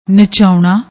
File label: "nachaouna", Punjabi; ਨਚਾਉਣਾ